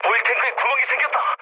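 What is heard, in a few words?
Korean damaged oil mood low, speech; War Thunder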